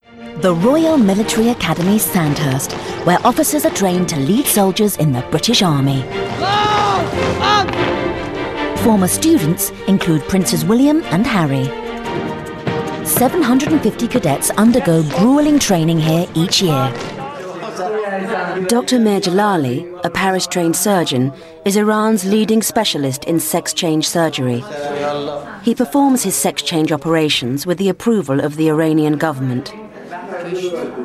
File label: Narration